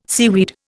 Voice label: "seaweed", Indian